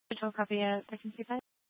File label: Law Enforcement Channel; Garfield County; Channel 2; Glenwood Springs PD